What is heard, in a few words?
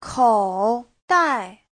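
"kǒudài", Mandarin Chinese; (danh từ) túi áo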